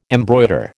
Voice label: "embroider", American

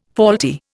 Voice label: "faulty", Indian

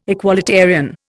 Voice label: "equalitarian", Indian

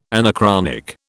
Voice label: "anachronic", British

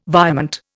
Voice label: "virement", Indian

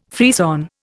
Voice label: "frisson", Indian

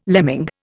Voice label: "lemming", Indian

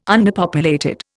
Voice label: "underpopulated", Indian

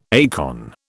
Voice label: "acorn", British

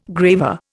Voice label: "graver", Indian

